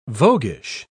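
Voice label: "voguish", English pronunciation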